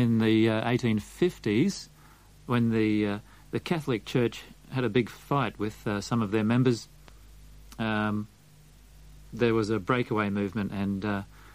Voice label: australian accent